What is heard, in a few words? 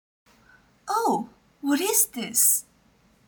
surprise